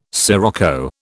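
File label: "scirocco", British English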